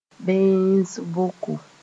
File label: "bẽes-boko", Mooré